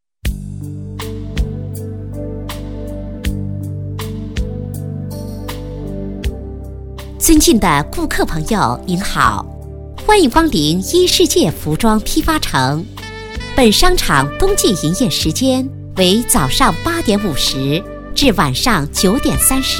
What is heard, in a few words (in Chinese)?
女 温馨提示 服装批发城温馨提示; 衣世界服装批发城